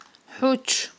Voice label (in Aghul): "хIуч", aghul